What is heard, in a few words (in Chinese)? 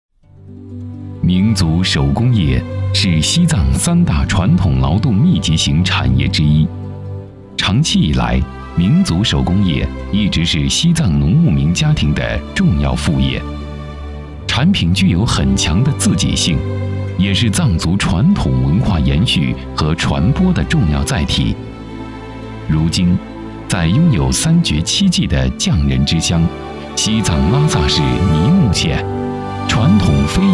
男 纪录片; 民族